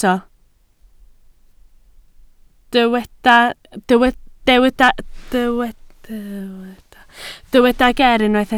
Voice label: "geer", Welsh